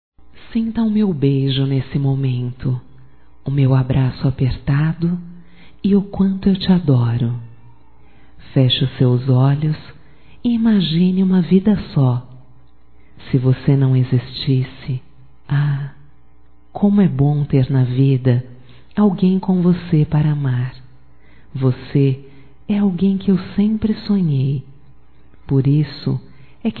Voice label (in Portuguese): ANIV romântico (F); Aniversarios; Telemensagens; Telemensagens 2017